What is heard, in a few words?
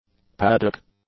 "paddock", English; nouns